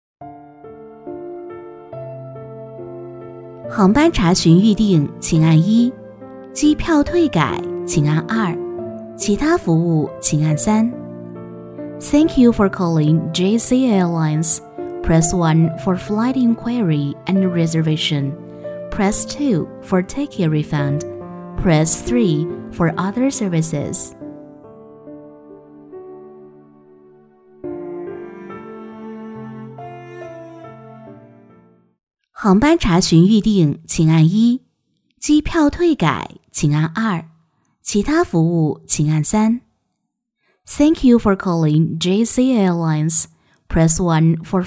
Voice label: 女, 试音; JC 航空 试音混

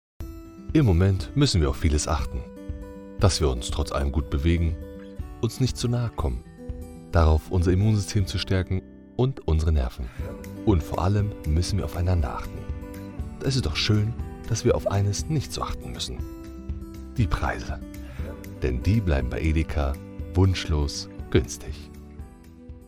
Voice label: 德语深沉男声; 外籍

